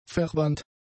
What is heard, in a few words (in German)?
"verwandt", German; adjectives